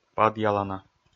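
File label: "падъялана", Komi, male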